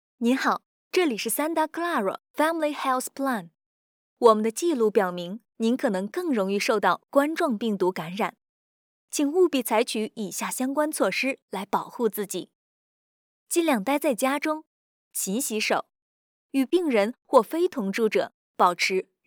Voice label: chinese mandarin ivr